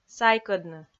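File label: "сайкӧдны", Komi, female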